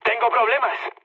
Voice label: aircraft damaged, mood med, speech; War Thunder